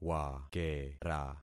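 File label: "wakera", concatenated syllables; Affixing experiment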